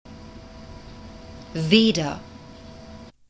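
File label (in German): "weder", German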